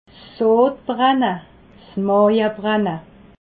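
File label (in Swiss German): "sodbrenne", Alsatian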